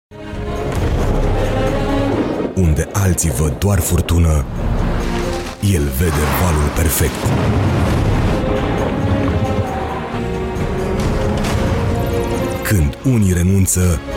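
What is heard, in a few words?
Romanian, male, Commercial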